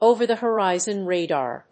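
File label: "Over-the-horizon radar", English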